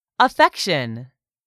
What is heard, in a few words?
"AFFECTION", english; 3000 words